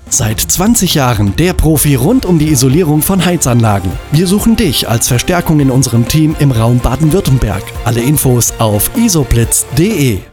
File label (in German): Funkspot